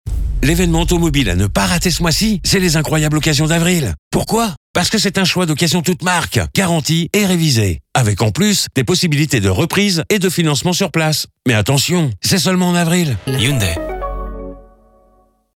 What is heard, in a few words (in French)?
radio; HYUNDAI